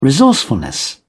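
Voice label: "resourcefulness", British English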